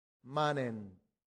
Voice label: "manent", latin; santillana

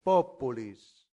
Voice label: "populis", latin; santillana

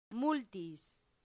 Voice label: "multis", latin; santillana

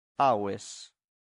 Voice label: "aves", latin; santillana

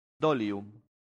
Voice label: "dolium", latin; santillana

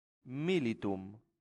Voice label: "militum", latin; santillana

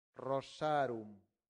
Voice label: "rosarum", latin; santillana

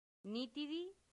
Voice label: "nitidi", Latin; santillana

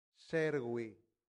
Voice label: "servi", Latin; santillana

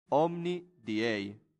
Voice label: "omni Diei", Latin; santillana

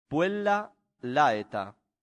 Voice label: "puella laeta", Latin; santillana

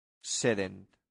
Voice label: "sedent", latin; santillana

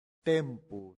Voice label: "tempus", latin; santillana

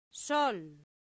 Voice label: "sol", Latin; santillana

